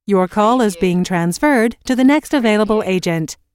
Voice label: US female phone prompt Your call is being transferred to the next available agent; lynne publishing